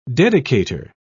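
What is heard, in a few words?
"dedicator", British English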